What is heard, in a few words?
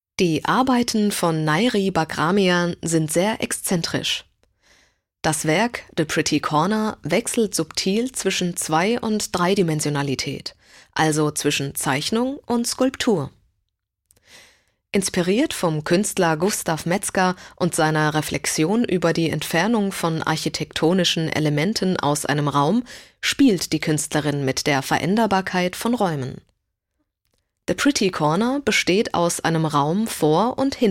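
German audioguide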